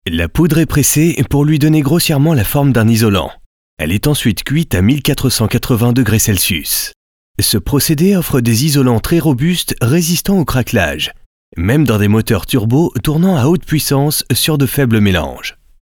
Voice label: Institutionnel English to French industrial videos